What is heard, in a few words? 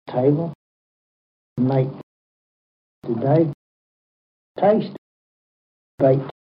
kent face, accents; warren st